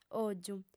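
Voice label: "ògiu", Sardinian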